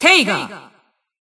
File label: System Voice; BBCP; Bullet